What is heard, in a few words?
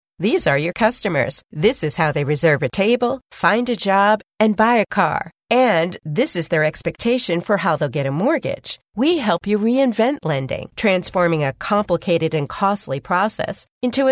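US English, female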